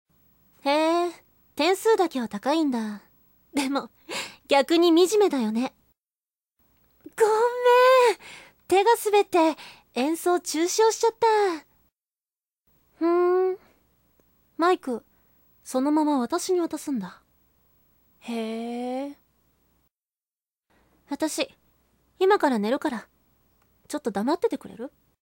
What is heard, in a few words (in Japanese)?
台詞読み上げ; 女の子の声になろう!